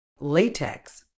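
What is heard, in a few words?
"latex", British English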